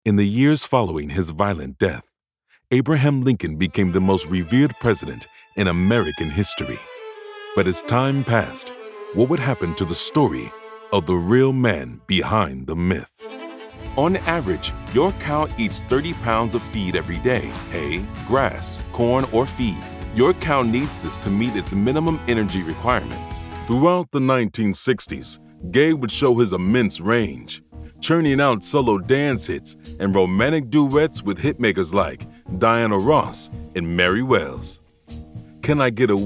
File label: men narration